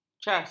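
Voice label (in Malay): "cas", Malay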